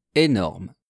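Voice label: "enorme", French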